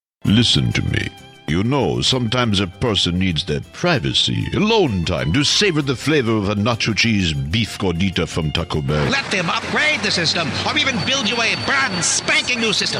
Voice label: character